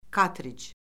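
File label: "kartric", Azerbaijani; Orfoqrafiya Lüğəti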